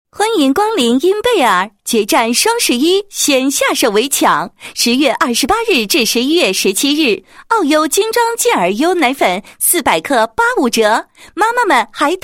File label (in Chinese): 女, 广告; 婴贝儿广告